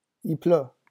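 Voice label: "il pleut", French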